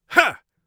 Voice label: attack, mage voice; Mage Voice Pack